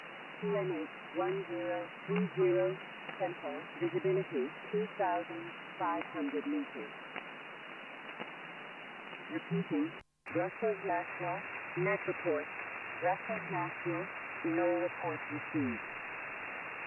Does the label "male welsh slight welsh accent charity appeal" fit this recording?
No